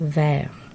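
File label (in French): "verts" French adjective, masculine plural; Colors and Adjectives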